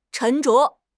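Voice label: "沉着", Chinese; HSK6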